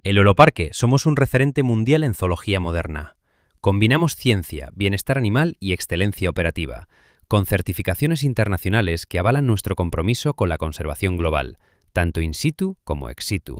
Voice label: expressive fast and spontaneous; Expresivo, rápido y espontáneo